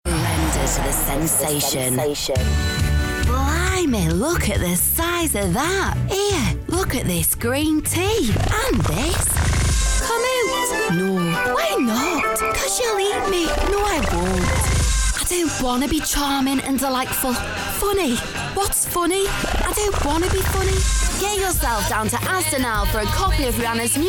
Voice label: COMMERCIAL